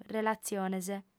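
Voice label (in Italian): "relatziones", lingua sarda, fem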